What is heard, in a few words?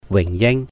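"wìhng yìng", receive (the honor of), Cantonese; 榮膺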